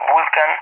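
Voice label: "Vulcan", English, SPAIN; AirDefence; DCS; Allied Flight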